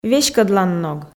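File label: "веськӧдланног", Komi, female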